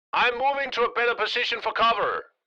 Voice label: Military radio voice command moving to a better position